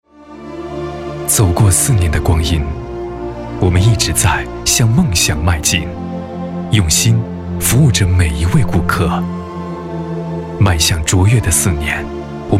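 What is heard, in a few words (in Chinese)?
男国大音-深情-感人; 走过四年的光阴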